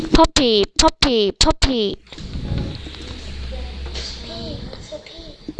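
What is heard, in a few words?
"puppy", spoken word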